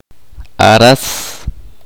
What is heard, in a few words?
"jugar", chorti